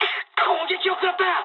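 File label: aircraft damaged mood med, speech japanese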